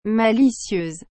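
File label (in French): "malicieuse", French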